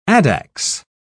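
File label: "addax", English, British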